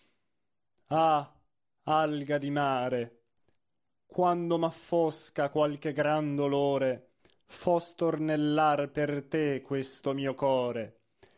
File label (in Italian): Stornellata marinara espressivo; Cimara